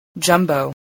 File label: "jumbo", English